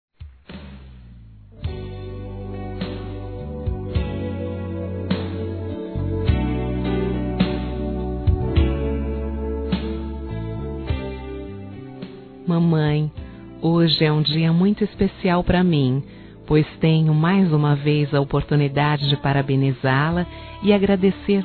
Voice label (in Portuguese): Geral Feminino, Telemensagens; Telemensagens 2017